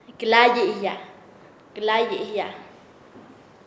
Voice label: alisar, Nasa Yuwe; paez

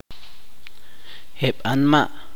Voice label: "personas", Chuj

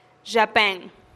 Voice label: "xapen" niña, teotitlan

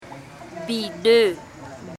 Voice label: Embera Chamí Zaino bido

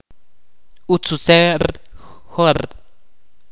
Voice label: "cabello", Ch'orti'

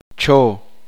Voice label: uspanteko lago

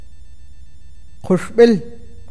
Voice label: "cuchillo", awakateko

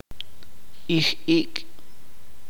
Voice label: "respirar", chuj

